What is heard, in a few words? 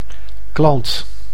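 "klant", Dutch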